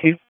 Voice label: Police North District Tac 1, p25; Raleigh; Wake

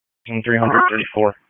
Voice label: Wake Forest Police Tac, p25

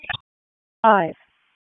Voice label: WC EMS ALERT, p25; wake

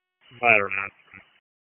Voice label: FIRE OPS, p25; wake

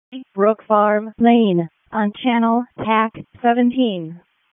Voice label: EMS ALERT, p25; wake

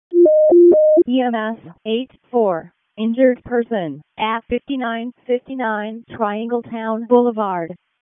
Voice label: WC EMS ALERT, p25; wake p25

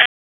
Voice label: Police Northwest District Tac 1; Raleigh; wake p25

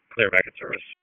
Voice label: WC EMS DISP1, P25 recordings; wake

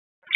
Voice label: Police Spec Ops Tac 4, p25; Raleigh; wake

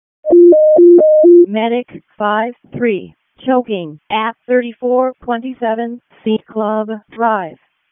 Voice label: EMS ALERT, p25; wake

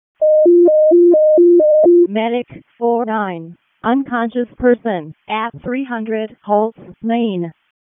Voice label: WC EMS ALERT, p25; wake

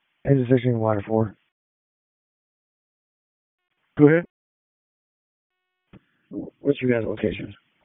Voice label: FIRE OPS, p25; wake

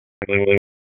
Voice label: Police Tac, p25; Raleigh; Downtown District; wake

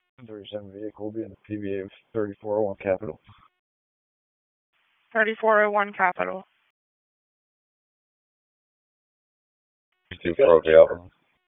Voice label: Police Northeast District Disp, p25

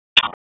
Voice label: Raleigh Police Northeast District Tac, p25

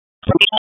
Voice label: Police Northwest District Tac 1

